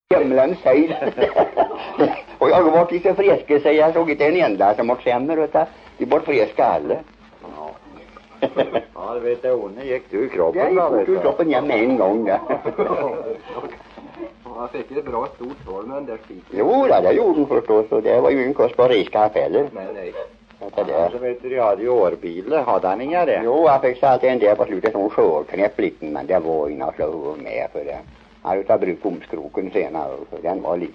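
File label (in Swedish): Järnskog Värmland, dialekter; Folkminnen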